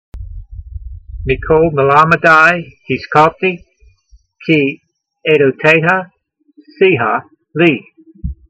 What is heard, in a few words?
voice, Psalm 119:99, hebrew language; Transliteration: Meekol-melamedai hees'kaltee, kee aydoteyha seeha lee